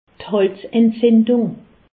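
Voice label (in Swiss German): "halsentzindung", Alsatian; medecine; angine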